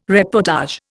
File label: "reportage", Indian